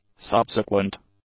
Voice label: "subsequent", English; adjectives

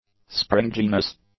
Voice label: "springiness", english; nouns

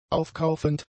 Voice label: "aufkaufend", German; adjectives

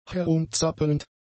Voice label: "herumzappelnd", German; adjectives

